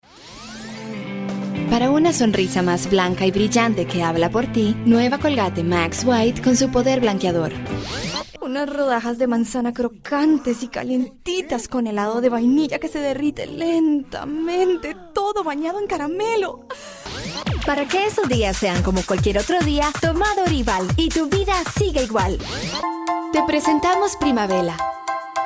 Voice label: languages spanish

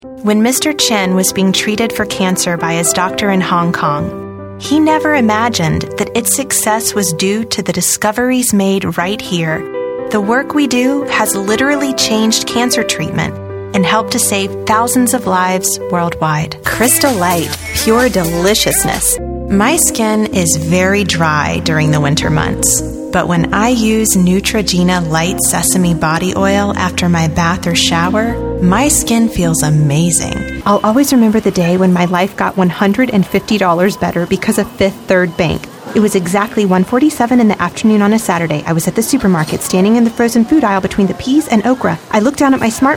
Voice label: commercial female; commercial women

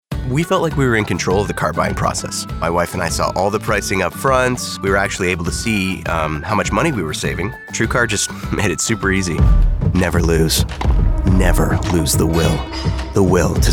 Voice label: commercial men

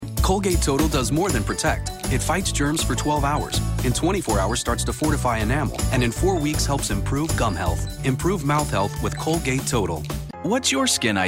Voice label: commercial, men

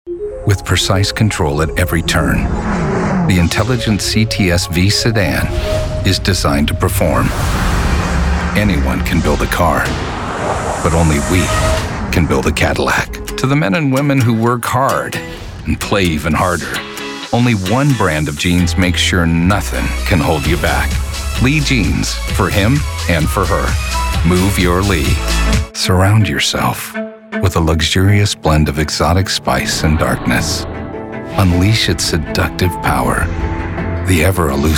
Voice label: commercial men

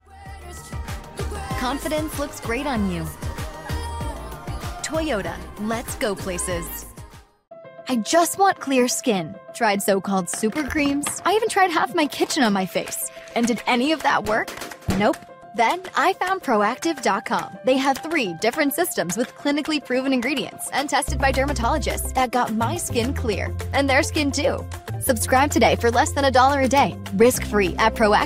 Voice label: commercial women

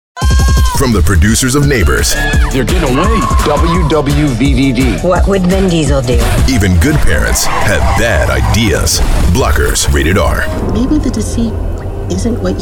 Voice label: trailer men

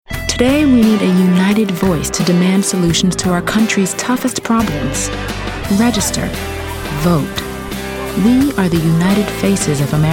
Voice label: commercial women

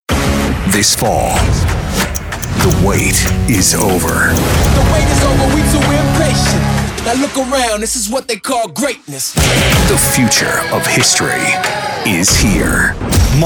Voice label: promo men

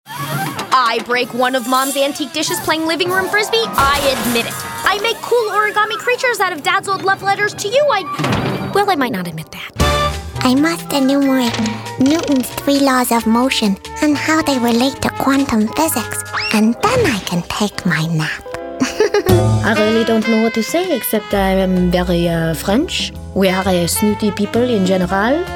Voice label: animation female

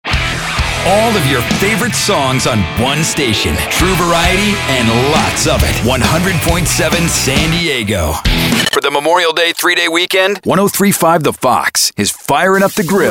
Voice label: radio men classic rock; radio imaging

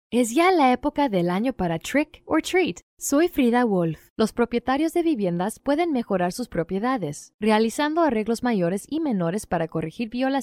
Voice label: Spanish Commercial; languages and accents

